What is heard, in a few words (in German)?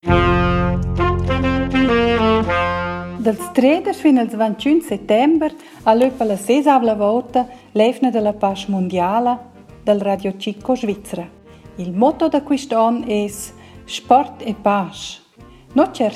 jingle, rumantsch